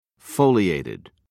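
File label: "foliated", British English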